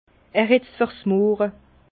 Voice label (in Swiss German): "murre", Bas Rhin; vin